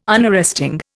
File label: "Unarresting", Indian